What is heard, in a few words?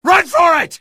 run for it, male; video games; npc; source engine; episodic; episode 1